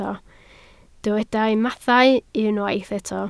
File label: "mathau", Welsh